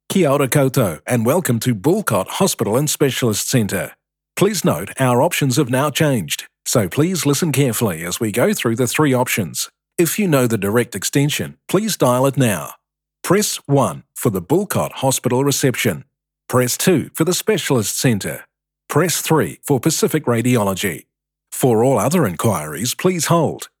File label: Phone Message; Computer and Telephone Services Ltd; Boulcott Hospital